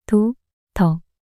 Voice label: 도더 낱소리 여자

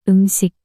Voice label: "음식" 여자; 단어

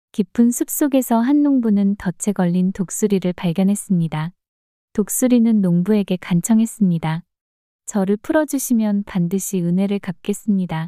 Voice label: 이야기 여자; 농부와 독수리

